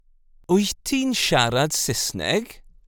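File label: Do you speak English informal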